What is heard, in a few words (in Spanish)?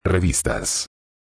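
"revista", español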